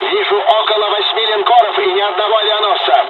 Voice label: japanese first wave, dialogs russian; War Thunder